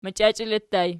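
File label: "machiachele" ergative plural, Archi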